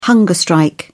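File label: "hunger strike", English, British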